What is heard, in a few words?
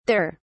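"thur", British English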